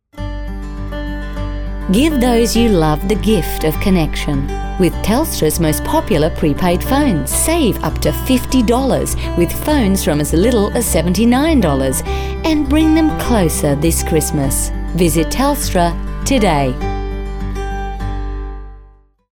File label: Australian; Telstra